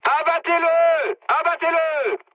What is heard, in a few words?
attack position mood high, speech fr; War Thunder